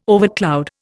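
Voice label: "overcloud", Indian English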